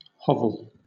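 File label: "hovel", British English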